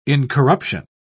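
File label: "incorruption", English, British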